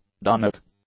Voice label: "dunnock", English; nouns

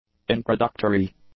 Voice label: "introductory", english; adjectives

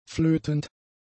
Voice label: "flötend", German; adjectives

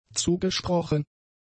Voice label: "zugesprochen", German; adjectives

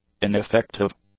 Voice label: "ineffective", English

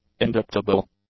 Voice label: "indictable", English

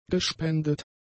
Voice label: "gespendet", German; adjectives

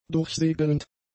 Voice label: "durchsegelnd", german; adjectives

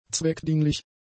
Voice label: "zweckdienlich", German; adjectives

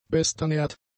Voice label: "besternährt", German; adjectives

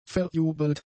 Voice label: "verjubelt", German; adjectives

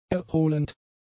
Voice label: "erholend", German; adjectives

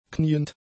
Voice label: "kniend", German; adjectives

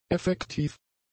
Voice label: "effektiv", german; adjectives

